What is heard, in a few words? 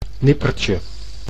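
"nippertje", Dutch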